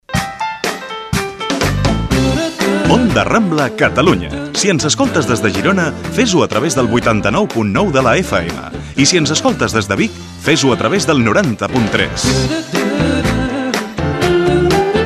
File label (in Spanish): Publicidad Radio voces comerciales musica; Onda Rambla Catalunya